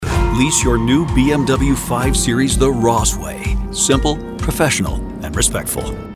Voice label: Respectful Auto Dealership voice over

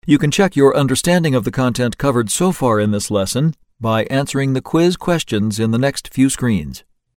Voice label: Instruction Summary E Learning voice over

